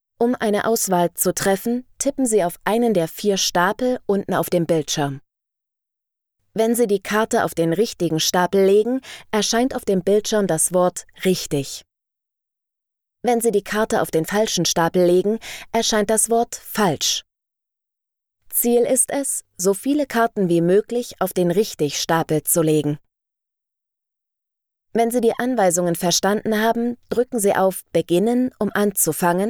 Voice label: eLearning